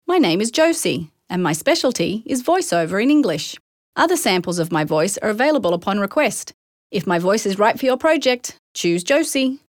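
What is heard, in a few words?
English Australian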